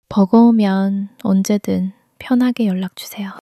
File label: sadness